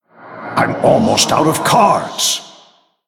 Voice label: Male Night Elf Low Cards; Malfurion Deathknight